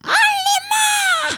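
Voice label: voice old lady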